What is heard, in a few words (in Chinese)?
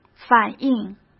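"反映", Mandarin Chinese; chinese-flashcards; hsk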